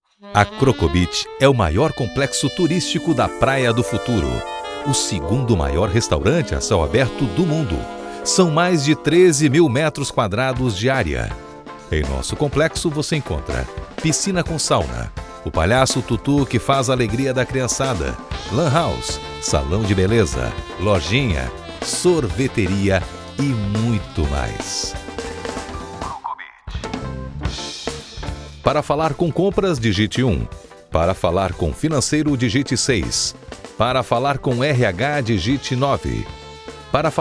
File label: Portuguese Brazilian IVR